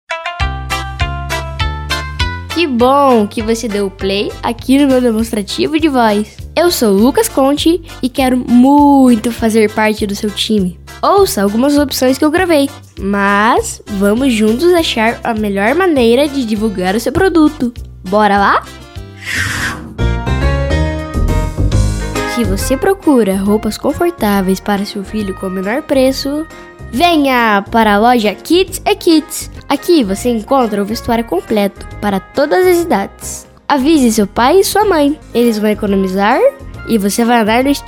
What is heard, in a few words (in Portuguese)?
infantil, masculino